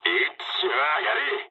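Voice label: player killed enemy mood med, speech japanese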